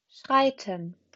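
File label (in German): "schreiten", German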